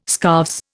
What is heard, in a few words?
"scarves", Indian